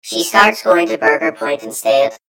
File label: story voiceover; yumpod